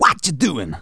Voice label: "Hurry", speech; Soul Man